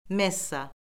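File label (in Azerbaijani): "messa", Azerbaijani; Orfoqrafiya Lüğəti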